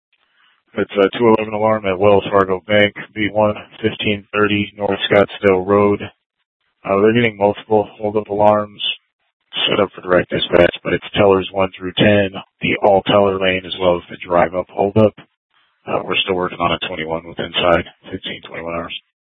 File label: PD Dispatch